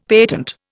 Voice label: "patent", Indian; Dictionary